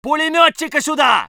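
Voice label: voice soviet infantry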